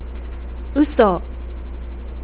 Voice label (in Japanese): "uso", nagoyaben